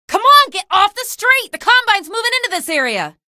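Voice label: citizen get off street, female; vo; npc; source engine; episodic; episode 1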